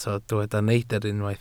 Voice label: "neidr", Welsh

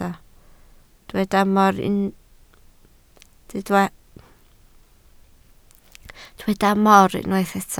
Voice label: "mor", Welsh

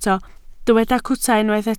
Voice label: "cwta", Welsh

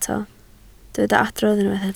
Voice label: "adrodd", Welsh

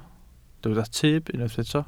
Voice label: "tyb", Welsh

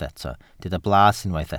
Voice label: "blas", welsh

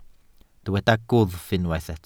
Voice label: "gwddf", Welsh

